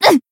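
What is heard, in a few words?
Voice damaged Japanese; hoozuki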